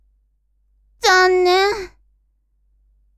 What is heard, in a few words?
woman